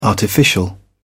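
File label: "artificial", English, British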